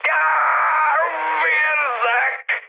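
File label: Hungarian damaged pilot mood high, speech; War Thunder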